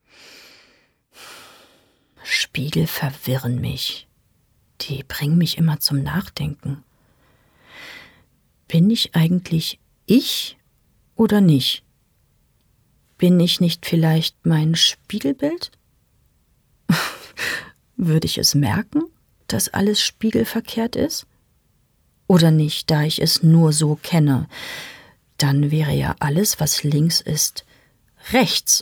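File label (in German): Innerer Monolog, Sprecher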